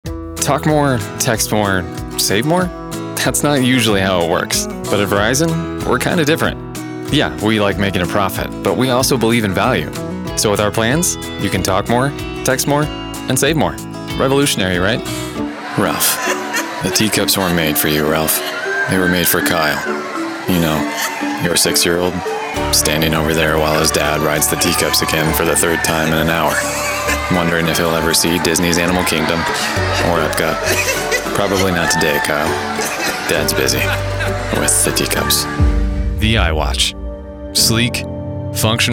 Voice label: Commercial